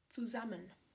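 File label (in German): "Zusammen", German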